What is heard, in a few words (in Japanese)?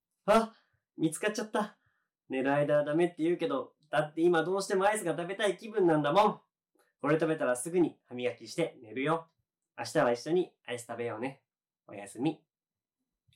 おやすみボイス; VOICE